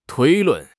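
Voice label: "推论", Mandarin Chinese; HSK6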